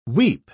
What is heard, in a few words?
"weep", pronounce